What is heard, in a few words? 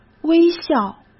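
"微笑" Mandarin Chinese; chinese flashcards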